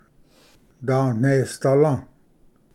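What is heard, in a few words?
"danesdala", Cherokee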